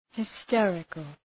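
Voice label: "hysterical", English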